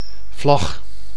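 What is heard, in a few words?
"vlag", Dutch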